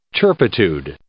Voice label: "turpitude", English